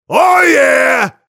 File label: mobster cheers